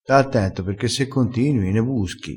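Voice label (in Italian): "busca", vocabolario dialettale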